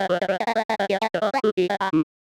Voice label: gravelly; voxel audio preview